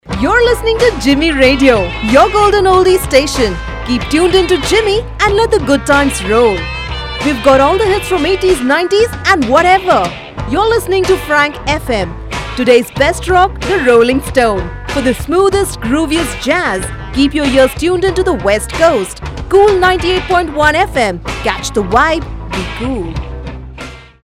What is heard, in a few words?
radio